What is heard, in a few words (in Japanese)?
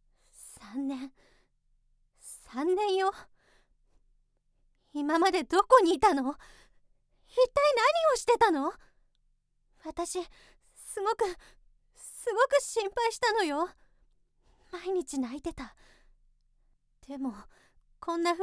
女性 怒